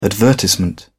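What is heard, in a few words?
"advertisement", British English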